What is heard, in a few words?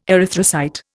"erythrocyte", Indian